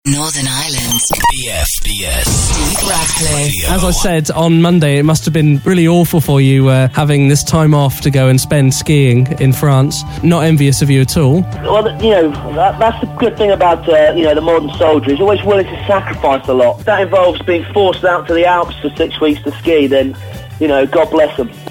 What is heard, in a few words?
radio drive promo; bfbs